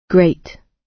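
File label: "great", British English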